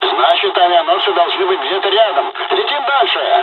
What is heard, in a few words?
dialog, dialogs russian; us midway; War Thunder